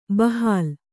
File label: "ಬಹಲ", kannada